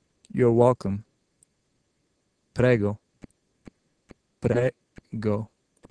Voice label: Italian Welcome

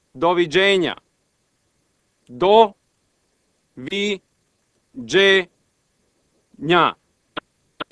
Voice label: Serbo Croatian Good Bye

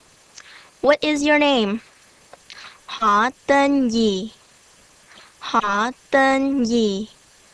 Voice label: Vietnamese Name

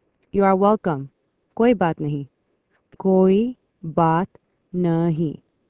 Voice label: Hindi Welcome

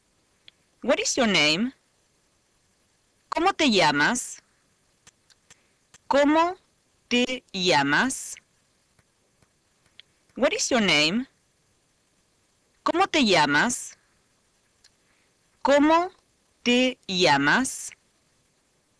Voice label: Spanish Name

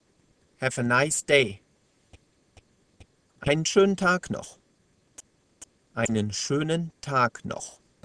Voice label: German Nice Day

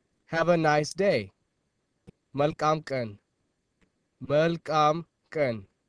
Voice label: Amharic Nice Day

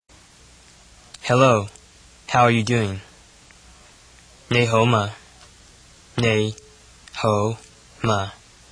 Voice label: Cantonese Hello

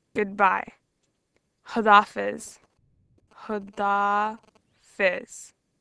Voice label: Urdu Good Bye

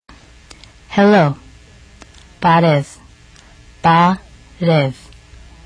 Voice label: Armenian Hello

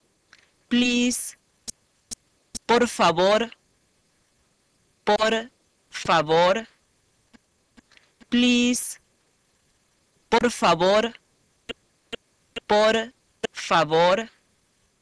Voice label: Spanish Please

